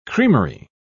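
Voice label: "creamery", English, British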